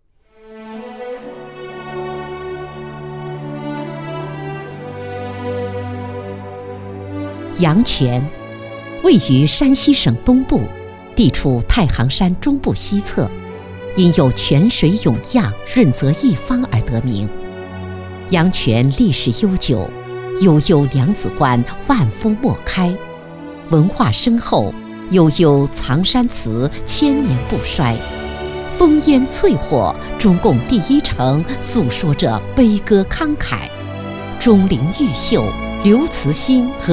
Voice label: 女 专题; 国家统计局阳泉调查队

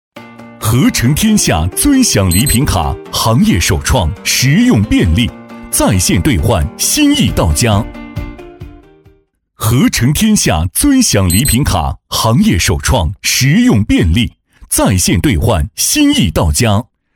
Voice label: 男 广告 礼品卡; 和成天下尊享礼品卡

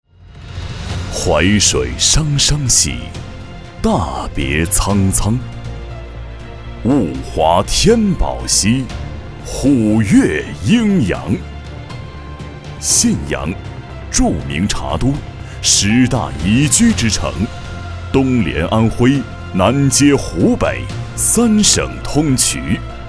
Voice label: 男 宣传片 宏伟 学校

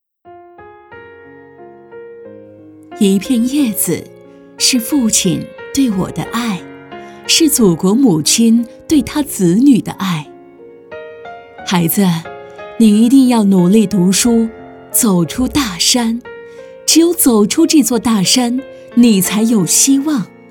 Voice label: 女 旁白 一片叶子 是父亲对我的爱

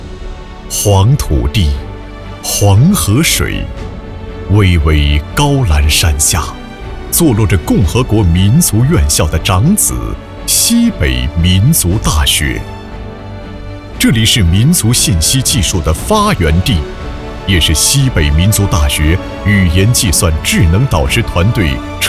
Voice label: 男 专题 大气品质; 西北民族大学

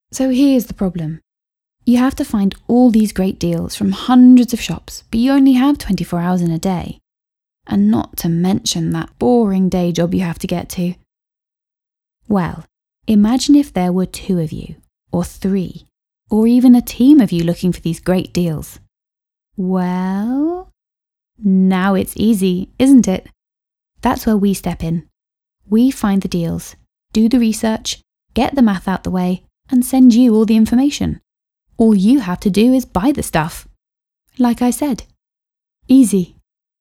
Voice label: 外籍 英 女 英式 干音

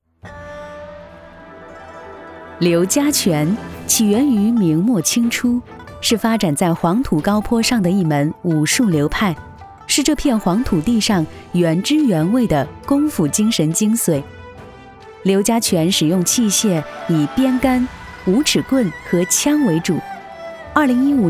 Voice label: 女 非遗申报; 刘家拳

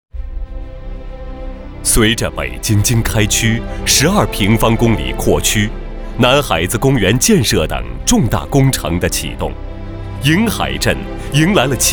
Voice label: 男 独白 瀛海社区

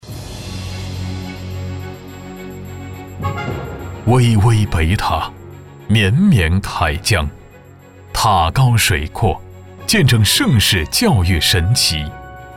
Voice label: 男 宣传片 大气 学校宣传

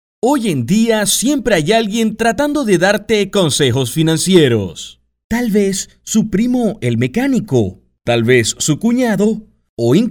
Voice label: 西班牙语男-干音-激情活力

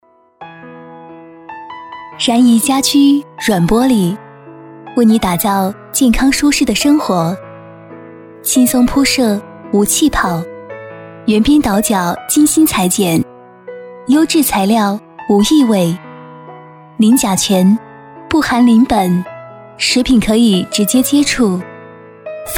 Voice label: 女 广告旁白 家居软玻璃

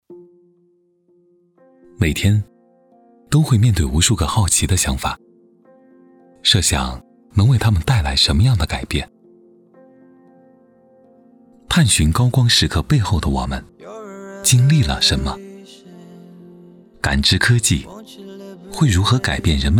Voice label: 男 年轻励志旁白; 云栖

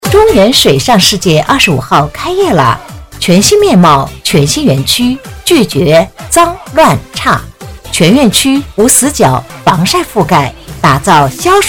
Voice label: 促销广告 水上乐园开业

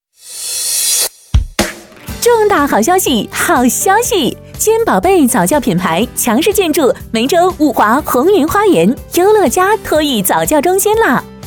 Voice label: 女 广告 活力

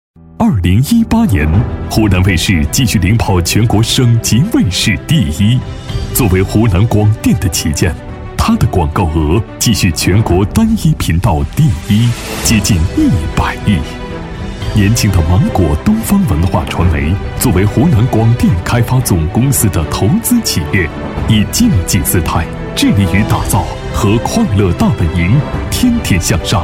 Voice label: 男 片头; 湖南卫视

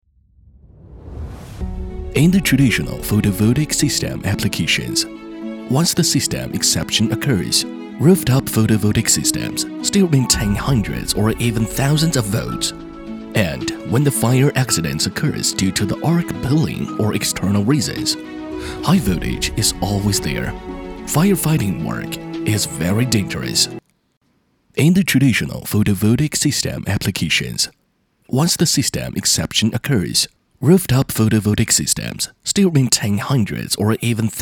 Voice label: 英男 英语 浑厚 专题企宣

